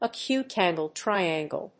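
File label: "acute-angled triangle", English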